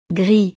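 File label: "gris", French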